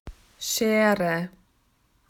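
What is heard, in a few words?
"scissors", Australian English; PictureDictionary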